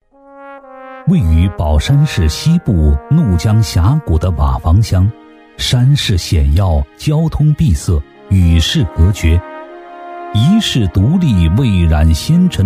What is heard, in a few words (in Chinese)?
男 纪录片 历史讲解感; 质感磁性; 男60-纪录片-历史讲解感